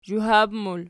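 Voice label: "zhuhab" plural, Archi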